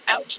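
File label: voice acting, es, female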